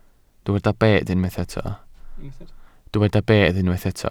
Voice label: "bedd", Welsh